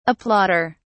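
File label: "applauder", British English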